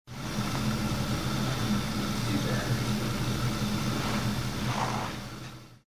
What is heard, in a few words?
whispered name